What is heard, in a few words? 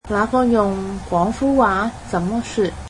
cantonese What is that called in Cantonese